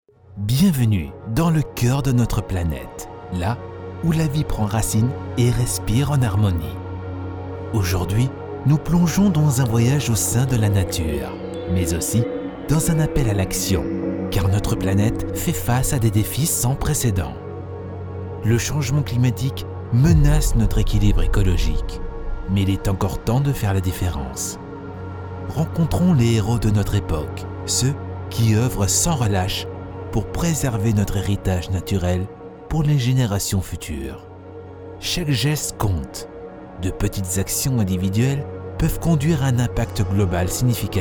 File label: french audiobooks